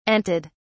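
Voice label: "anted", British English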